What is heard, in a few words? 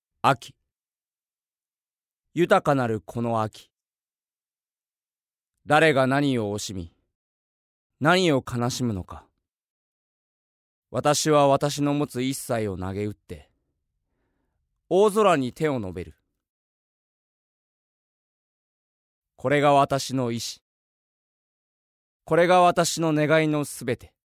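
male voice, narration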